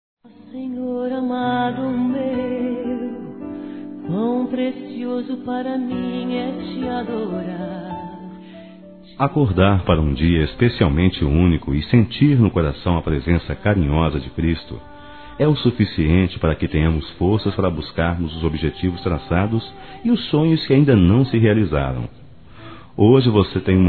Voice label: Aniversário Neutro Evangelico Masculino

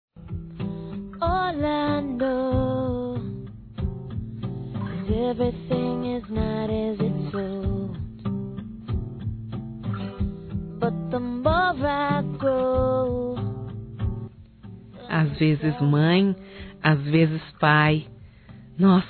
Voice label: MÃE COMO PAI, fem; Telemensagens 2017

